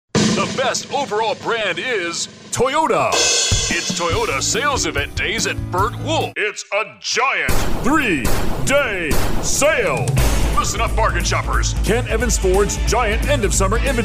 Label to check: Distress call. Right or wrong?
Wrong